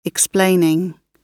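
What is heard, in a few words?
"explaining", British English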